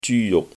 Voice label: "zyu1juk6", cantonese